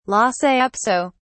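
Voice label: "lhasa apso", British English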